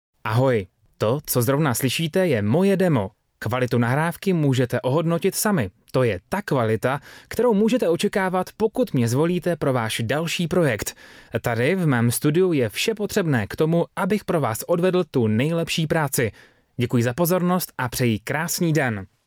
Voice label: czech male